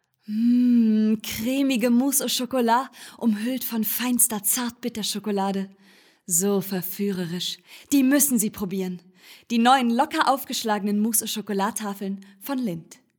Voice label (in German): Werbung Schokolade; LOFT VOICES